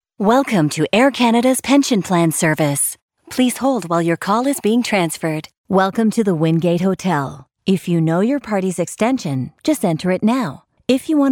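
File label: Canadian English, female, voice over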